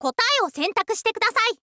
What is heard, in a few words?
"kotae wo sentaku", info girl voice